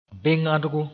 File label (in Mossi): "beng-ãodgo", moore